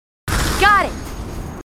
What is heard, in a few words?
marines female, marine tough